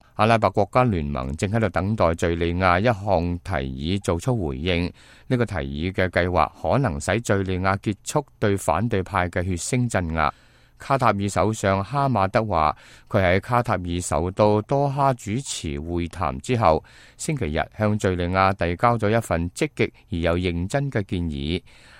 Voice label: cantonese, news